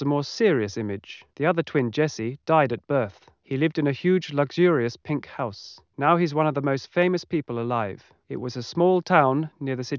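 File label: male, 130 ms, 5 cm, source; SiSEC11; underdetermined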